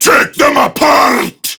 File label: Attack Prime Grineer Male; Tau; Battle Barks